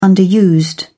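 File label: "underused", British English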